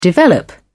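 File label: "develop", British English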